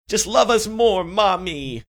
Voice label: funny

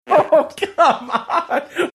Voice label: laughing saying oh god

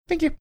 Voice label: cute thank you

